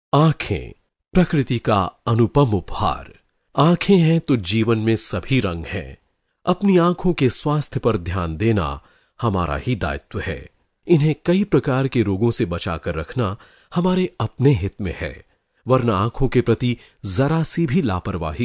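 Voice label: Hindi narration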